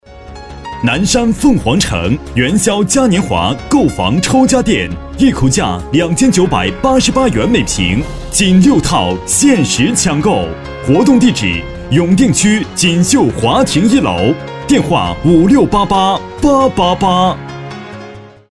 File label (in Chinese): 广告 地产男 大气磁性; 南山凤凰城